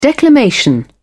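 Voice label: "declamation", British English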